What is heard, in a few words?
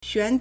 "xuan4", Chinese